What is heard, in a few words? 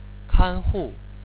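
"kanhu", Chinese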